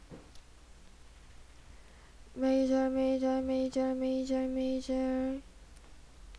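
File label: "major", spoken word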